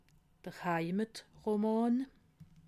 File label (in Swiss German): "heimetroman", alsace